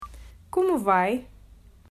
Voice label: Como Vai, Portuguese